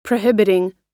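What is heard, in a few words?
"prohibiting", US English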